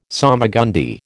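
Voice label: "salmagundi", American English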